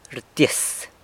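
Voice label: "rtyis", jumps, San Lucas Quiaviní Zapotec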